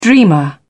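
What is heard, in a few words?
"dreamer", British English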